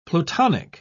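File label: "plutonic", British English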